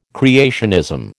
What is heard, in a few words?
"creationism", American